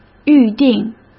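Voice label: "预定", Mandarin Chinese; chinese-flashcards; hsk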